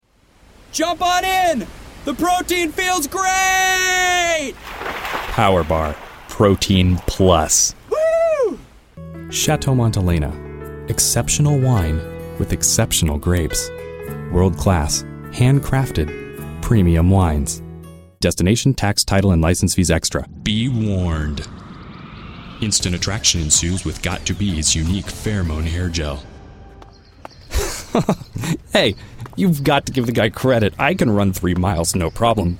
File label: male voice